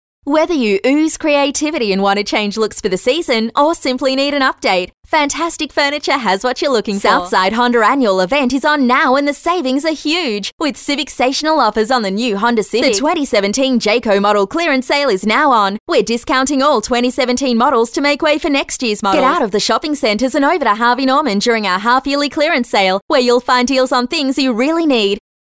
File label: Hard Sell